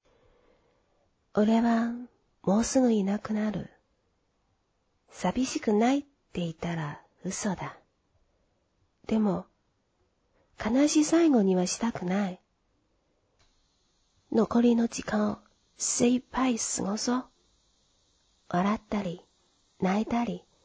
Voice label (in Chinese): 日语独白